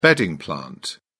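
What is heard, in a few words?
"bedding plant", English, British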